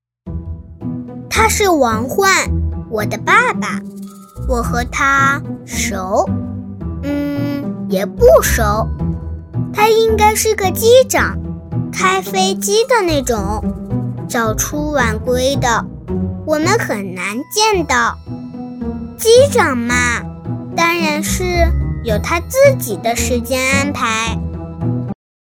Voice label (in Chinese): 女, 真童旁白样音; 大气质感; 可爱